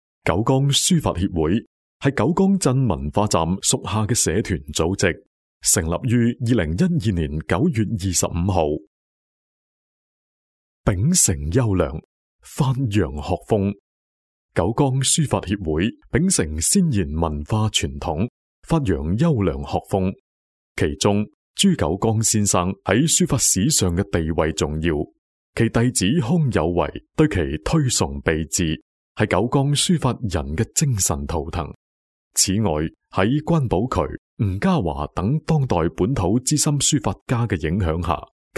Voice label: 男粤 专题 成熟; 企业; 九江书法协会; 粤语

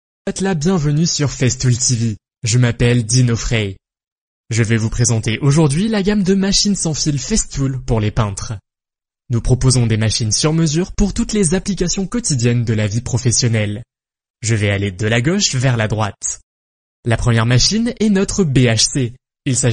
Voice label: 法语男, 外语, 小语种

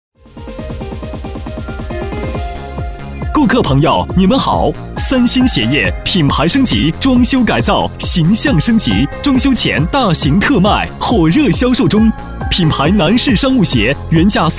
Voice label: 广告, 促销, 鞋业, 年轻; 三星鞋业

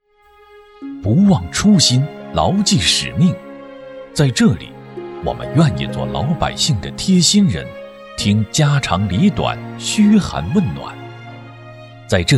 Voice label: 男国 专题 政府 党群服务中心 抒情

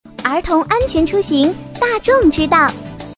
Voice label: 动画 动画片; 精灵豆豆

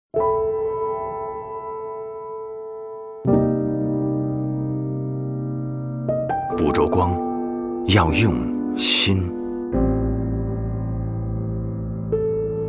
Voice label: 广告_珠宝首饰; 周生生

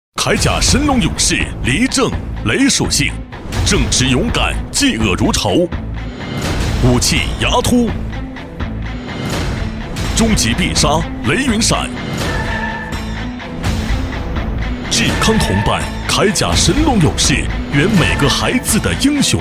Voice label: 男 动画 游戏; 铠甲勇士游戏

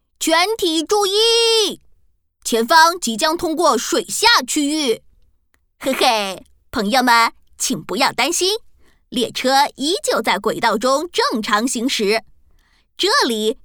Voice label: 动画 童声 男童

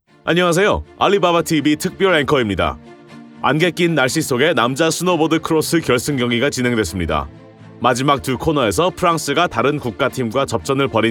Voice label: 韩语男; 外语; 小语种

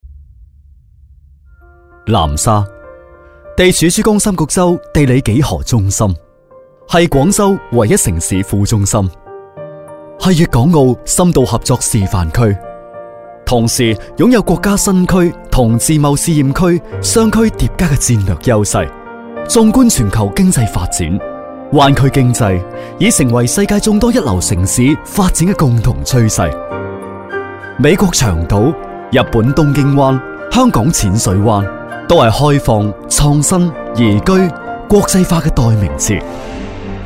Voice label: 男粤 专题 政府; 南沙国际港; 粤语

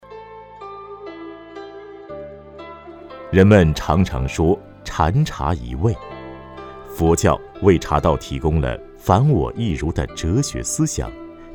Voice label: 男国 专题 产品 茶 讲述

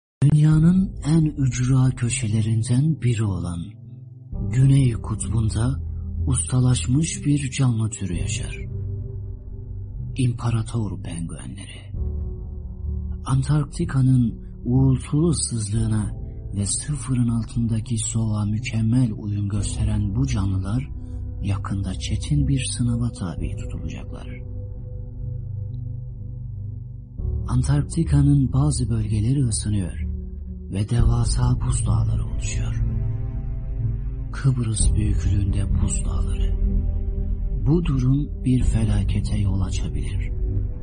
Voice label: 土耳其语男 外语 小语种 样音

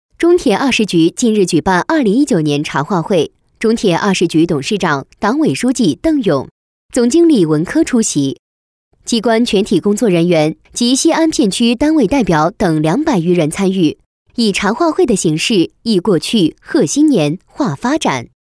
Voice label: 专题 新闻; 中铁新闻

